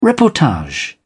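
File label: "reportage", British English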